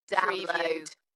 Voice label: UK female, Dalekness; beanstalk audio

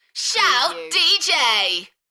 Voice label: UK female, "Shout dj", Enthusiastic; beanstalk audio

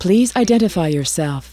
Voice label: US female please identify yourself; lynne publishing

